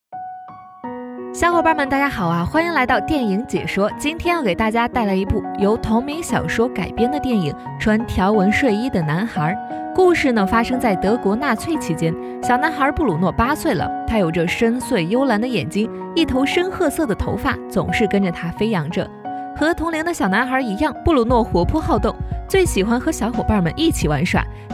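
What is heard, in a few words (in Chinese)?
女【电影解说】; 【电影解说】穿条纹睡衣的男孩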